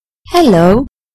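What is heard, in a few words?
"hello", English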